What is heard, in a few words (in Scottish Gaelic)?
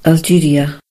"algeria", gd; gcompris-data; geography